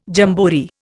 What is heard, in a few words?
"jamboree", Indian